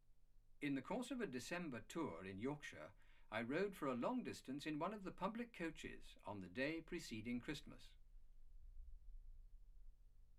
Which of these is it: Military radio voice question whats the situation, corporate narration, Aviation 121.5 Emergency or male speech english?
male speech english